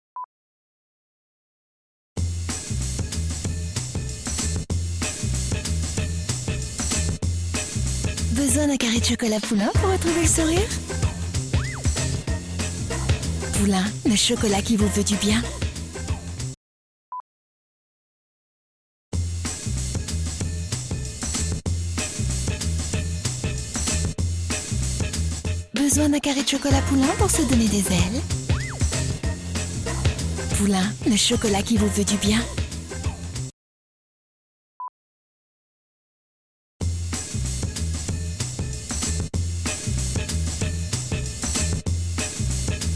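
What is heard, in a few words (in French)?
jeune nature, voix off; Poulain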